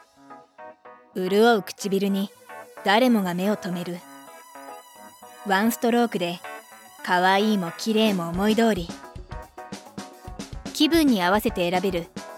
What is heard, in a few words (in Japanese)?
CM, 女性ナレーター